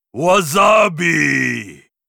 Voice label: blademaster pissed, zh; 剑圣（魔兽争霸3）